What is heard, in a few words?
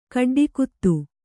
"ಕಡ್ಡಿಕುತ್ತು", kannada